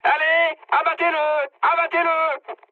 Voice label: attack position mood med, speech fr; War Thunder